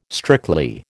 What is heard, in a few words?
"strictly", American